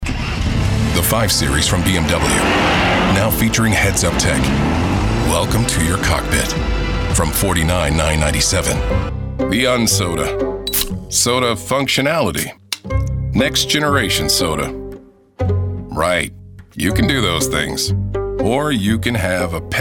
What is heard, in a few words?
Commercial